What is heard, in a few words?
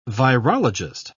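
"virologist", English, British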